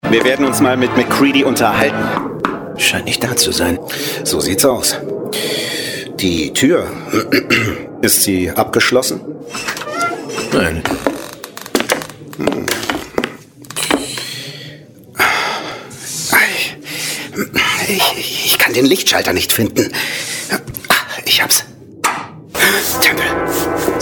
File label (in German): Hoerspiel Spannung Action Krimi; Inspektor